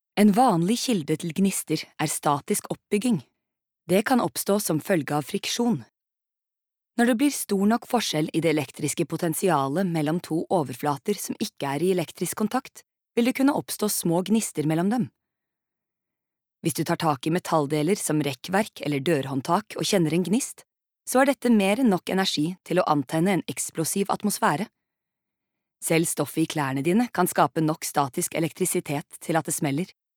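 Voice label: Norwegian e-learning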